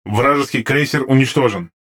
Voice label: RU Speech Destroy Enemy Cruiser; World Of Warships; USSR Ovechkin

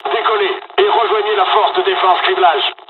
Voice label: takeoff, dialogs french; midway jpn; War Thunder

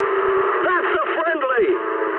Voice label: eng player friendly fire mood high, speech eng; War Thunder

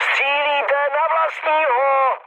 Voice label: player friendly fire, speech cze, mood high; War Thunder

